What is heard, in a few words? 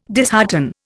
"dishearten", Indian